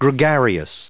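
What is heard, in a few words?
"gregarious", American English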